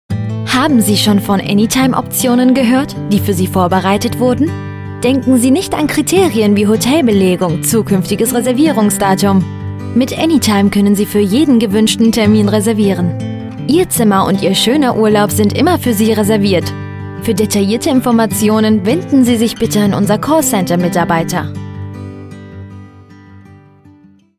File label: germany voice over female ivr